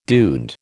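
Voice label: "duende", American English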